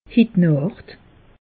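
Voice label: "hitnacht", Alsatian; meteo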